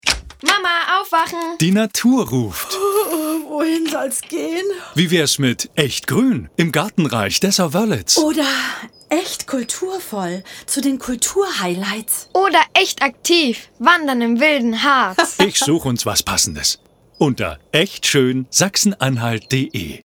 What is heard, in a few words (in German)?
Hoerprobe Werbung, Sprecher; IMG